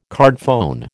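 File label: "cardphone", American English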